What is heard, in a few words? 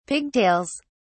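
"pigtails", British English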